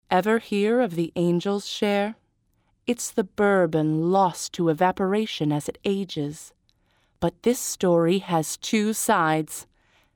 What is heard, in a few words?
commercial voice over; devils cut by jim beam